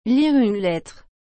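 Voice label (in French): "lire une lettre", French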